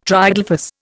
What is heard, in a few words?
"triadelphous", Indian